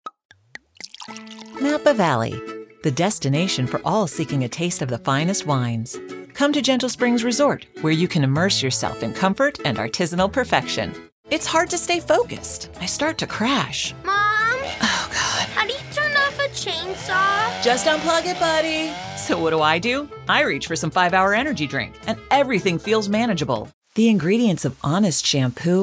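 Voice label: Commercial, American Female Voice Actor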